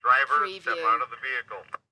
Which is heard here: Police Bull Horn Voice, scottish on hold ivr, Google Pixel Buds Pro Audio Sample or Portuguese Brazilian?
Police Bull Horn Voice